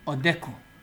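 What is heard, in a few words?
"odeku", Nigerian Pidgin, male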